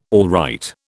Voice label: "alright", British